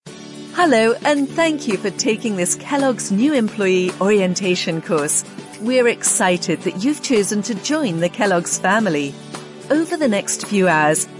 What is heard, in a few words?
E-Learning, british voice